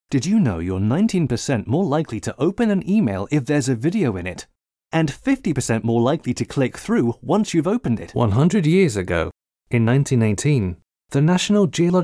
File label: Voice eLearning